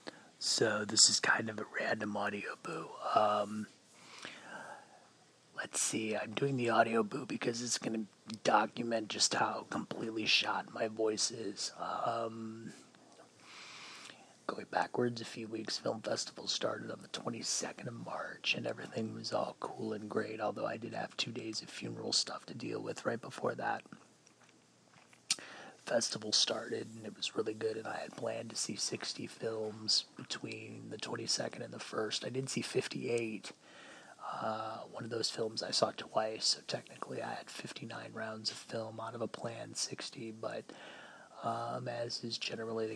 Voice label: laryngitis